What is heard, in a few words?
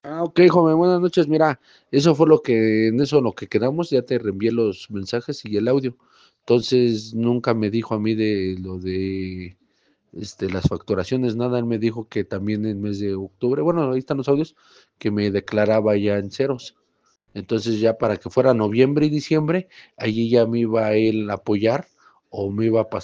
whatsapp ptt